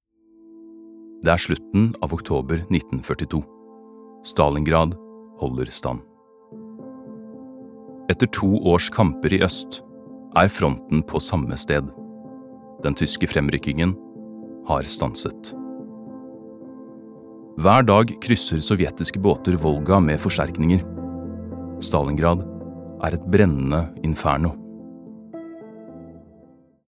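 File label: Norwegian dokumentar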